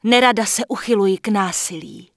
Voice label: Human Female Official NPC Pissed; wowpreklad; czwow

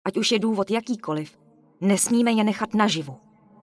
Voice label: Human Female not a bag; Error Messages; wowpreklad; czwow; FemaleErrorMessages

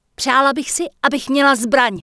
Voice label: Night Elf Female Priestess Pissed; NPC; wowpreklad; czwow

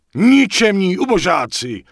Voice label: Night Elf Male Open Fire; wowpreklad; czwow; NightElfVocalMale

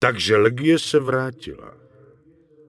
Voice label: Night Elf Male Standard NPC Greeting; wowpreklad; czwow

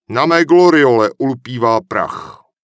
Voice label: Human Male Official NPC Greeting; wowpreklad; czwow

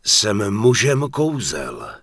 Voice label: Human Male Pissed, Human Vocal Male; wowpreklad; czwow

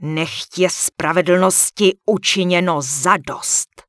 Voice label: Human Female Warrior NPC Farewell; wowpreklad; czwow

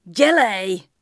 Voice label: Night Elf Female Priestess Greeting; NPC; wowpreklad; czwow

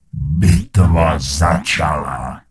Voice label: Gnome Male Goodbye; wowpreklad; czwow; GnomeVocalMale

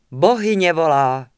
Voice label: Night Elf Female Sentinel Greeting; NPC; wowpreklad; czwow

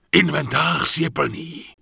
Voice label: Night Elf Male bag full; Error Messages; wowpreklad; czwow

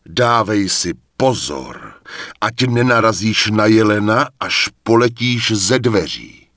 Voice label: Night Elf Male Warrior NPC Pissed; wowpreklad; czwow

